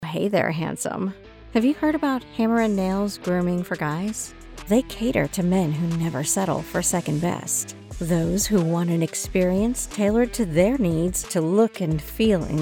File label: Radio Women VO; Westport